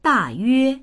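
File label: "da4yue1", Chinese; 大约; だいたい、およそ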